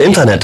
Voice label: LoFi Words, LoFi Vocals

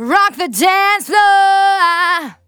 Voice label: Phrase Female; Vengeance Samples; Phrases

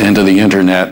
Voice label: LoFi Phrases, LoFi Vocals; Vengeance Samples

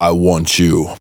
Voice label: Phrase Pitched; Vengeance Samples

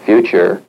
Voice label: LoFi Words, LoFi Vocals

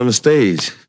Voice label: LoFi Phrases, LoFi Vocals; Vengeance Samples

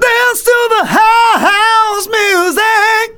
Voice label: Phrase Male; Vengeance Samples

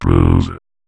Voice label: Vocoder Words; Vengeance Samples

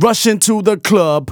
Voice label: Phrase Male Rap; Vengeance Samples

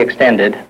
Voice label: LoFi Words, LoFi Vocals

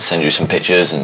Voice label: LoFi Phrases, LoFi Vocals; Vengeance Samples

